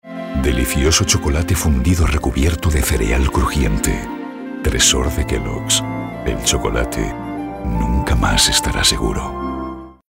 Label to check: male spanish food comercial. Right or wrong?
Right